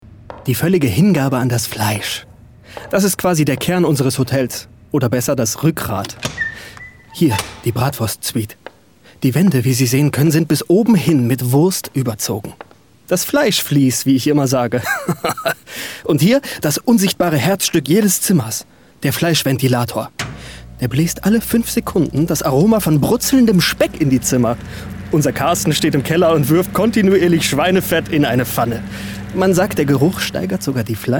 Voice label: Werbung; ironisch

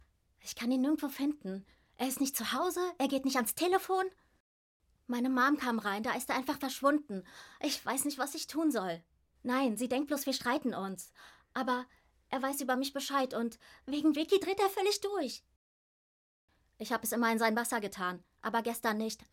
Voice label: Synchron

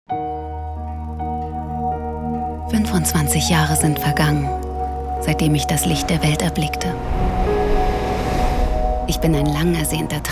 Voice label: Kinospot; Kinowerbung - 25 Jahre Freiheit

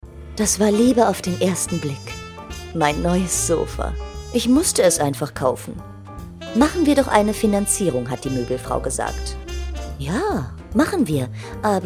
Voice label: Werbung; Raiffeisen

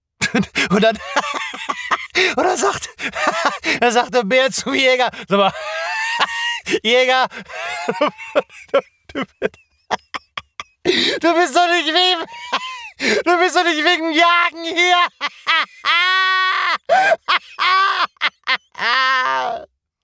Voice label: witzig

